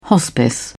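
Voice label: "hospice", British English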